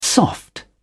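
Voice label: "soft", British English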